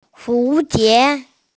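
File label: "hu die" (butterfly), Mandarin Chinese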